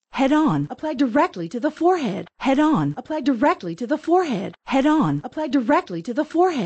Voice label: commercial; HeadOn